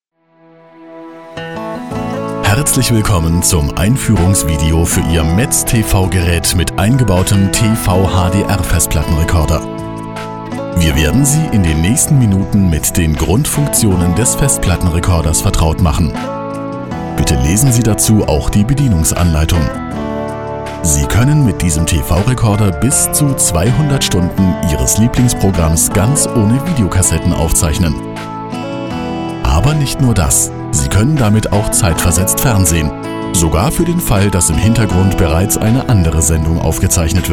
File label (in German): Multimedia Deutsch